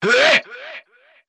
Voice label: "lit", male